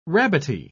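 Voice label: "rabbity", English, uk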